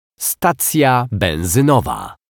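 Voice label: "stacja benzynowa", Polish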